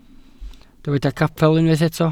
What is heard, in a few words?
"capel", Welsh